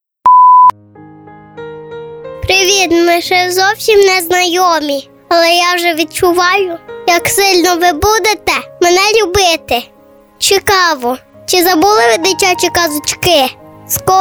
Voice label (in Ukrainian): ukrayinskoyu; avtorska pisnya